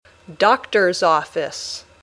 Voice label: "doctors office", English

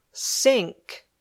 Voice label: "sink", English

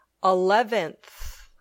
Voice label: "eleventh", English

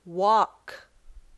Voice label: "walk", English

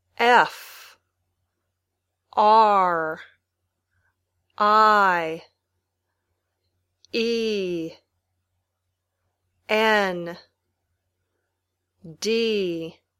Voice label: Spelling, Basic English

